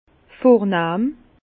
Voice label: "vornahm", Bas Rhin; vin; distingué

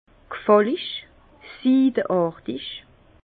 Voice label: "gfallig", Bas Rhin; vin; lisse soyeux

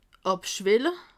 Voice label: "abschwelle", alsace

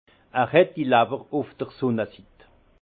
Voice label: "lawwer", alsace; vin

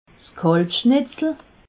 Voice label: "kalbschnitzel", alsace

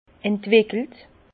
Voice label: "entwickelt", Bas Rhin; vin; développé

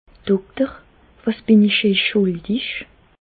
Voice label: dokter, Bas Rhin; medecine; docteur quest ce que je vous dois

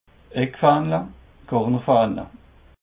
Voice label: "eckfaehnele", Alsace

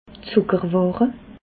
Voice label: "zucker ware", alsace

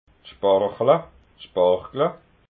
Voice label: "sparchla", alsace

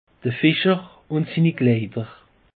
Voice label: "kleider", alsace; peche

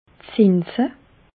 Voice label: "zinse", alsace

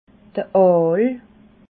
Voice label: "ool", alsace

